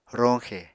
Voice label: "roñxe", Apatani